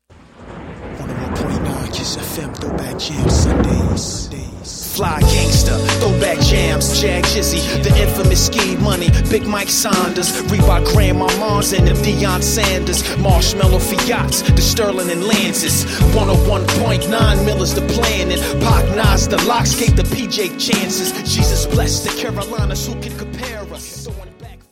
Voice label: promo; Throwback Reign; JAG